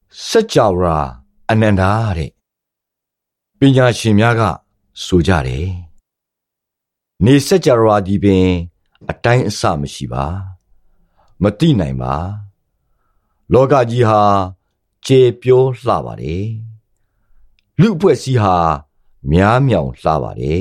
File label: Burmese Voice Sample Male